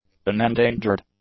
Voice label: "unendangered", English; adjectives